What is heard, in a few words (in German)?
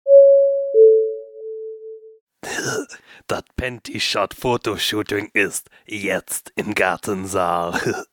durchsage